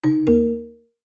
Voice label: Tutorial Speech; MilkChoco